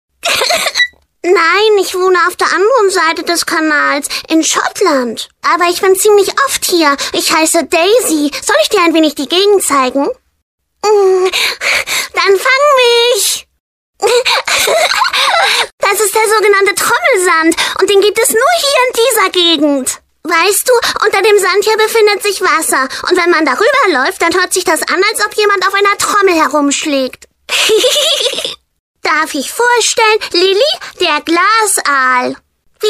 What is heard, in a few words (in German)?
Synchron Junger Seehund; KiKA; Die Hydronauten